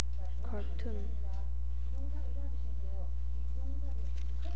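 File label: "cartoon", spoken word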